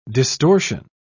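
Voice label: "distortion", British English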